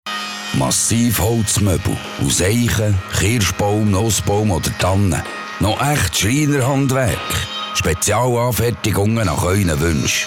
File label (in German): Werbung CH BE; werbung schweizerdeutsch be